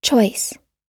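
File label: "choice", US English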